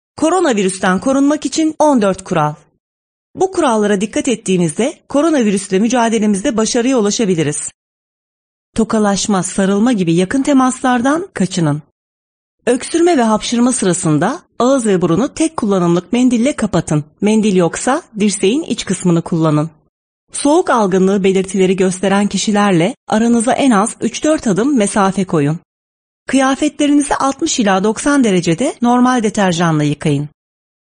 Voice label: 土耳其语女 外语 小语种 样音

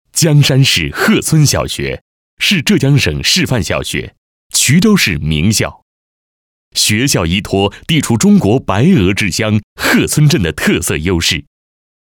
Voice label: 专题, 学校, 小学, 沉稳; 江山市贺村小学

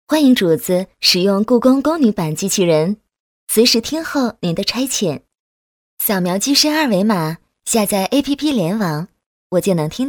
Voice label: 动画 角色 宫女版机器人

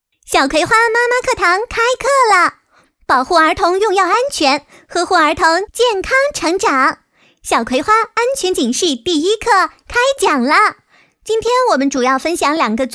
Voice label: 小女孩; 动画 角色

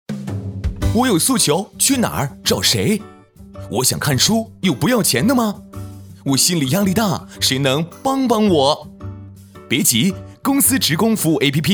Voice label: 宣传片 病毒, 男国; 湖北电力

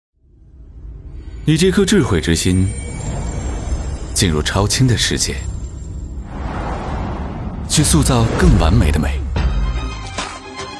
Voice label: 广告 手机通信 自然, 男; 华为荣耀v20

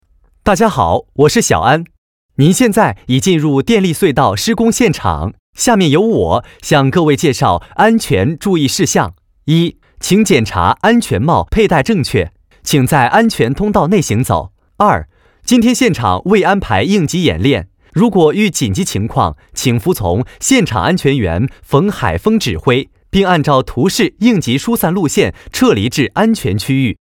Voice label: 男国 多媒体 广播提示 安全解说隧道检查

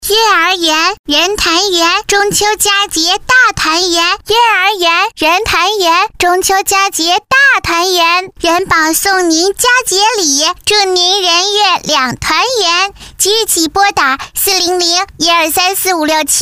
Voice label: 动画 童声 女童, 女国

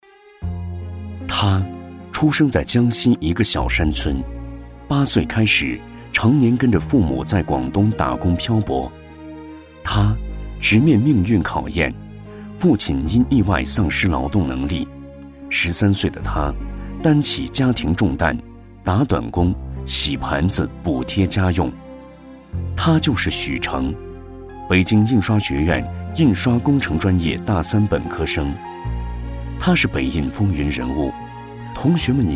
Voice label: 纪录片, 人物, 人物叙述

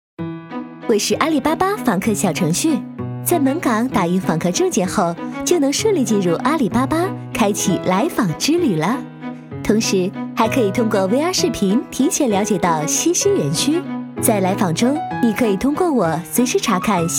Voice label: 女 宣传片 病毒 MG风格

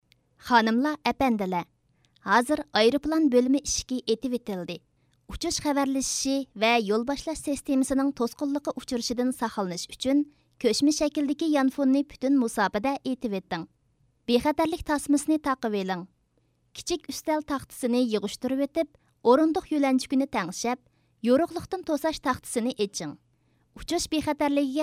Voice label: 维吾尔语女,小语种

